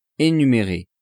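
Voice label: "enumerer", French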